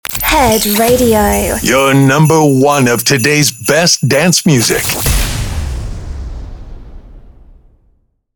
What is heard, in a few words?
Sweeper Xmas Short